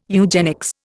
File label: "eugenics", Indian